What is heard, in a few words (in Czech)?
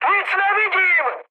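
Czech searchlight, mood high; War Thunder; speech cze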